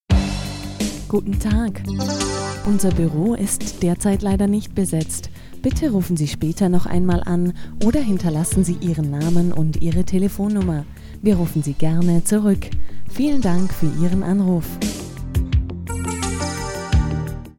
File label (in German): Telefon